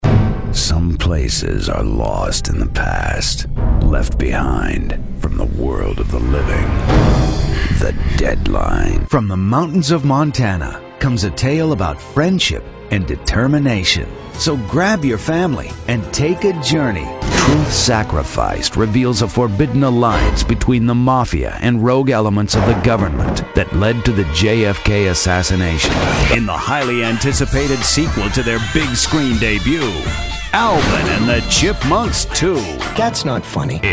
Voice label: trailers